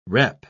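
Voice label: "repp", British English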